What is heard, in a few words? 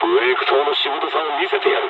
dialogs japanese; War Thunder